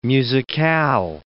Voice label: "musicale", English